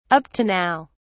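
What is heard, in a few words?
"up to now", English, British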